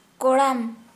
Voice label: Santali, chest